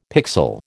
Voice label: "pixel", American